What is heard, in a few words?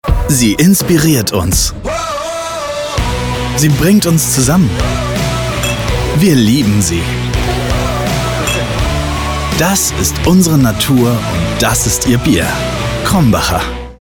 Werbung, positive, embracing, chummy, Commercial, German; Krombacher